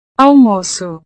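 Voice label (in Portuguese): "almoco", Portuguese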